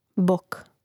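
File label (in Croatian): "bok", Hrvatski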